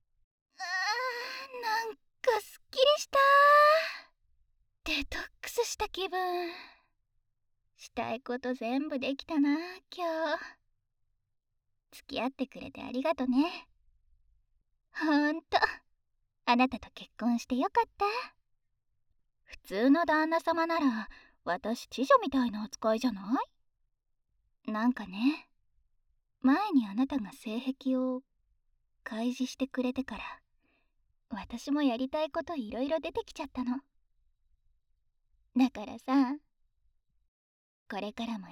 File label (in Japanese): ピロートーク; 水音なし